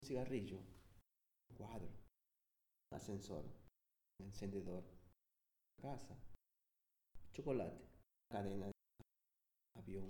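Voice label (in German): Zusammengefugte Worter Schnell Spanisch, male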